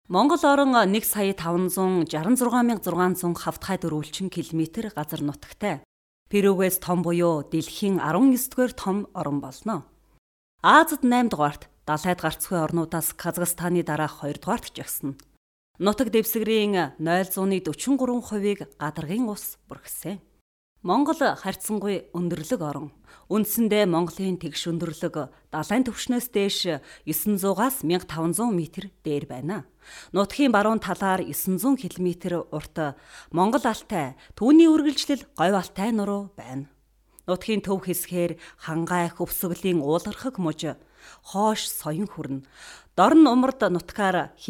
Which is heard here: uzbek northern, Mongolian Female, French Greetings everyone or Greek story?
Mongolian Female